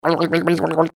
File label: funny babbling